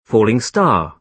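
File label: "falling star", British English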